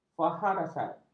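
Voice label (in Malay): "faharasat", Malay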